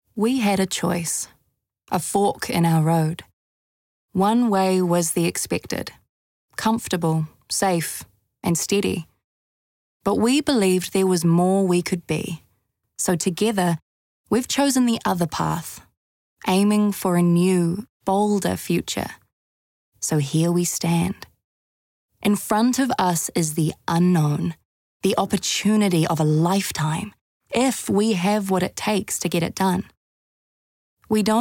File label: Countdown, en-GB; Choose To Believe